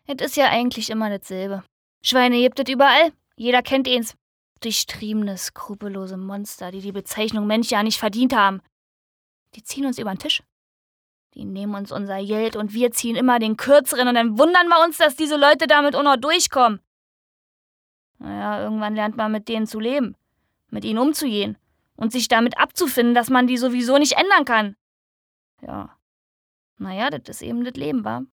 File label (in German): Dialekt Berlinerisch